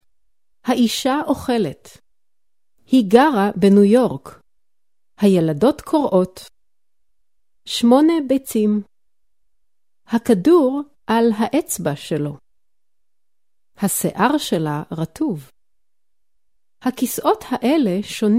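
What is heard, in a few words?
hebrew female voice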